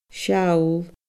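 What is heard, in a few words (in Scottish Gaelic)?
"seall", Scottish Gaelic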